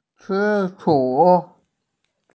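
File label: "zishu", Mandarin Chinese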